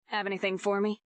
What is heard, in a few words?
fem